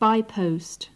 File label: "by post", English